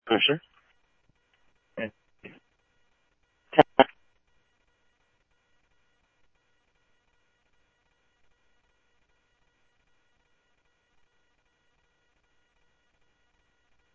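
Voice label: Mesa Police Department, scanner audio trunk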